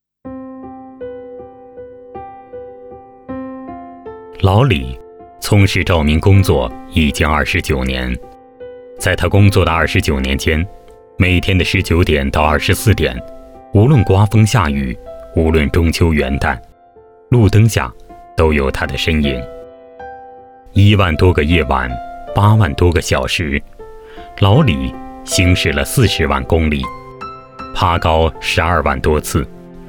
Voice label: 男, 人物类专题

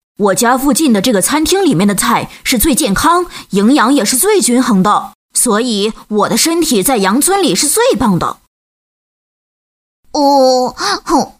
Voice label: 女 角色; 沸羊羊懒洋洋喜洋洋美羊羊; 国语女声配音

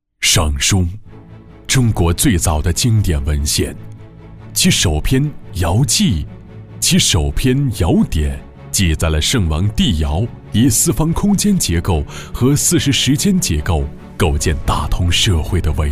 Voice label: 男, 景区宣传; 天台山旅游区宣传配音

